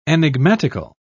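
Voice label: "enigmatical", British English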